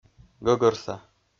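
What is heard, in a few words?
"гӧгӧрса", Komi, male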